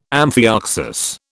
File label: "amphioxus", British